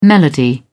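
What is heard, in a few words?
"melody", British English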